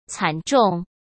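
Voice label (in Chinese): "惨重", Mandarin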